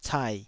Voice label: "cai3", taiwanese syllables